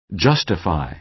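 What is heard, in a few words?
"justify", American English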